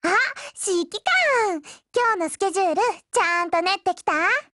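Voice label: HELLO Japanese, Voice; Liberator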